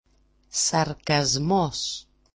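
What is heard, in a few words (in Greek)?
"sarkazmos", Greek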